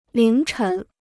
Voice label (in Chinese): "凌晨", Chinese